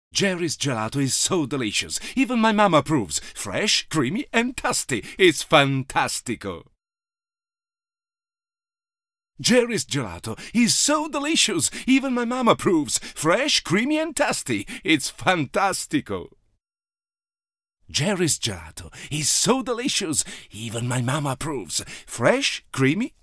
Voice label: Italian Accent

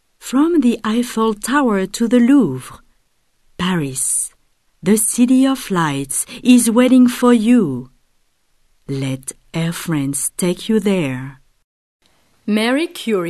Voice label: french accent; foreign languages

